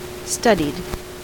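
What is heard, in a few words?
"studied", US English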